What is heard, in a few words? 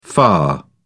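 "lah", British English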